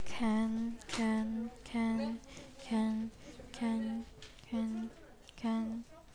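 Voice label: "can", spoken word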